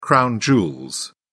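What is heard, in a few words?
"crown jewels", British English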